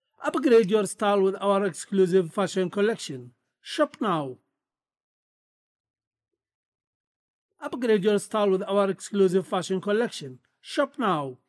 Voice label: Business English